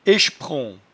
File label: "echpron", patois jura